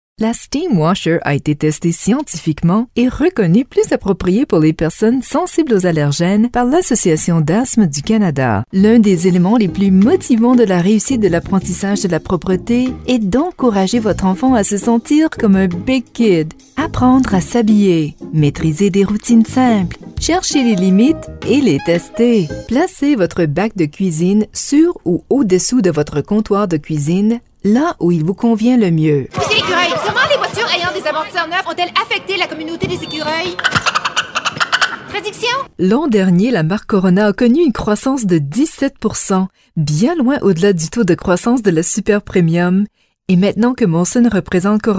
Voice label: Canadian French; foreign languages